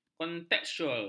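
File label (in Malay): "kontekstual", Malay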